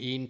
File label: Doric Numbers een, scots